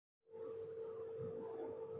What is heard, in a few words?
Voice Note